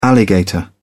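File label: "alligator", British English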